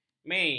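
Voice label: "mei", Malay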